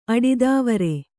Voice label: "ಅಡಿದಾವರೆ", Kannada

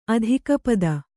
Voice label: "ಅಧಿಕಪದ", Kannada

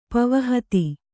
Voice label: "ಪವನಹತಿ", Kannada

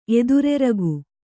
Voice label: "ಎದುರೆರಗು", Kannada

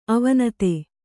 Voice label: "ಅವಿನೀತ", Kannada

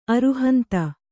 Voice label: "ಅರುಹಂತ", Kannada

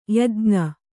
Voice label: "ಯಾಜ್ಞ", Kannada

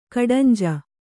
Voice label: "ಕಡಂಜ", kannada

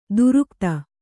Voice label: "ದೀರ್ಘತೆ", kannada

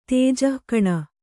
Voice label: "ತೇಜಃಕಣ", kannada

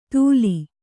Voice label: "ಧೂಲಿ", Kannada

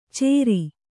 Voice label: "ಚೇರಿ", Kannada

